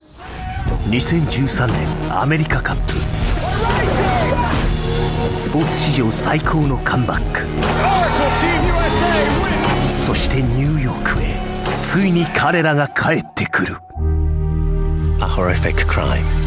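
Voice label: Japanese, Promo; GoLocalise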